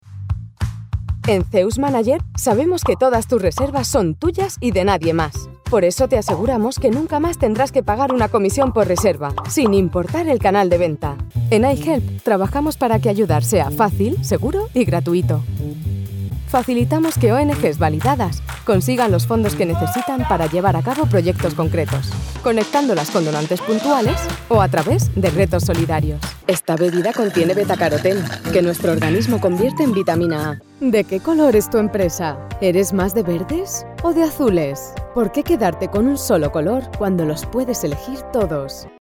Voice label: ES F Corporate up

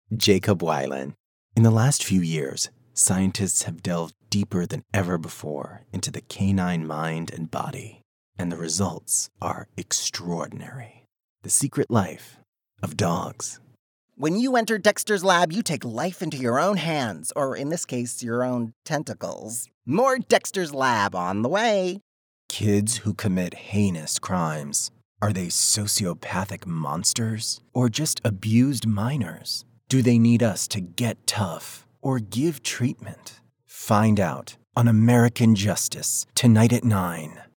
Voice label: Promo

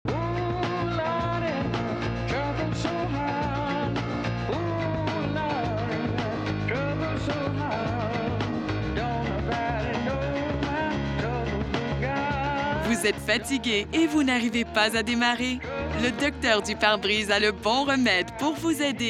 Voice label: Phone Message; Docteur Du Pare Brise

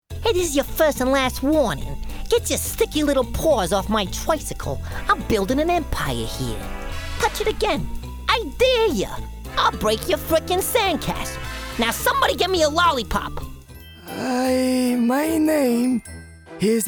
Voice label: Animation

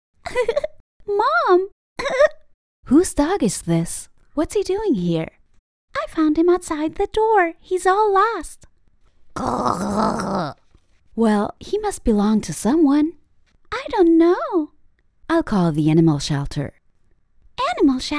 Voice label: all three voices ENGLISH; Hexe Lilli

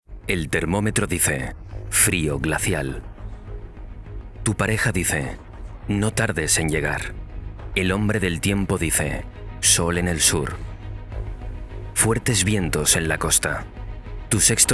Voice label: commercial TV

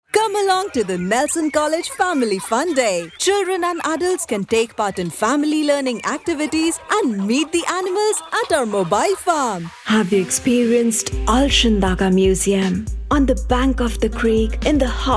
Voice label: Commercial Showreel English

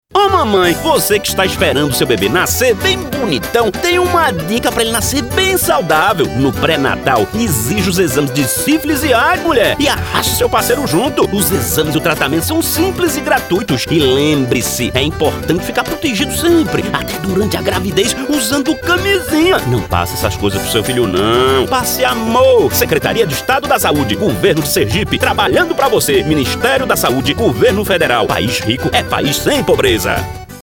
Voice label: spot; SES SÃO JOÃO

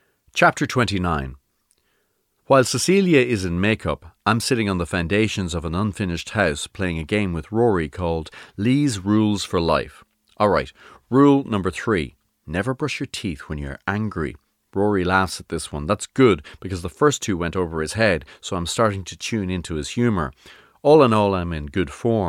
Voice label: Audiobook Narration

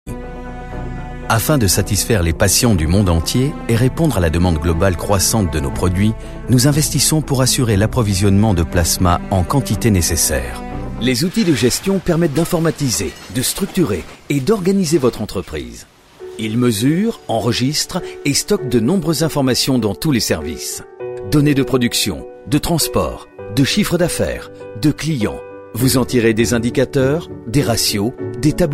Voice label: FILMS CORPORATE

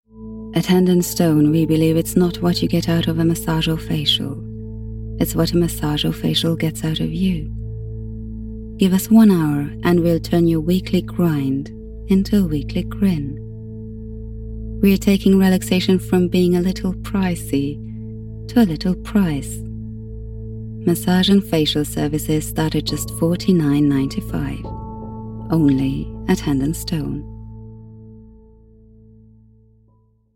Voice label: Ad

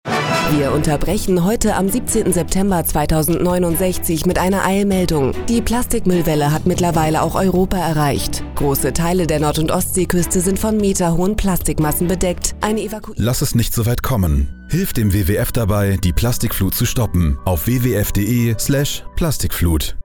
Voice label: News; Spotify

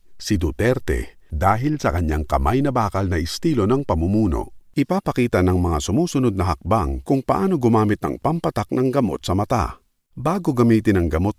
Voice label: Tagalog Filipino narration

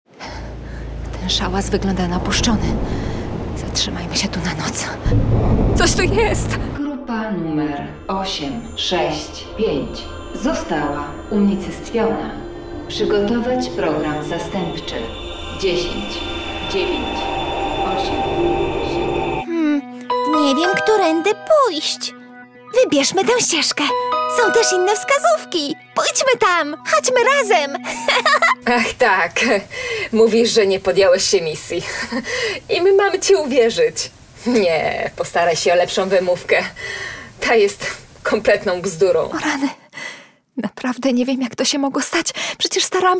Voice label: POLISH Games various characters

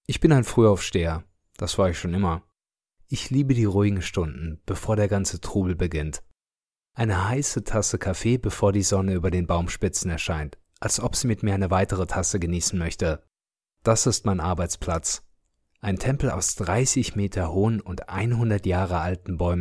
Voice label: TV Spot

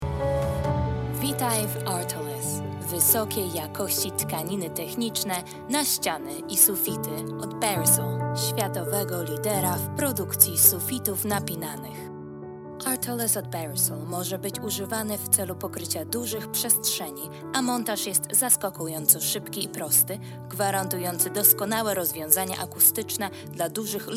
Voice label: Commercial

